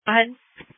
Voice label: Sheriff Dispatch; sdr